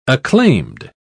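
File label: "acclaimed", British English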